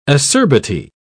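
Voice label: "acerbity", British English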